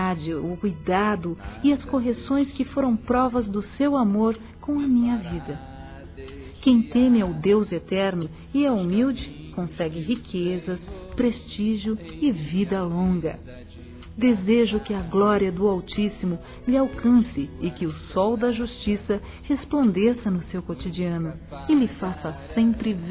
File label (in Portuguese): ANIV. DO PAI (F), Gospel; Telemensagens; fem; Telemensagens 2017